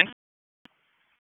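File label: Raleigh Police Southeast District Dispatch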